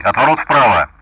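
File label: Speech Sound Russian; DCS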